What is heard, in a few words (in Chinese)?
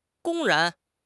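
"公然", Mandarin Chinese; HSK6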